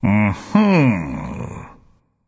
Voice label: thinking; smite; zeus